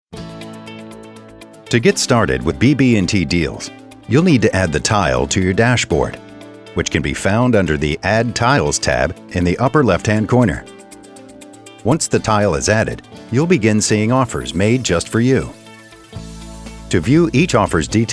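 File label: narration, voice actor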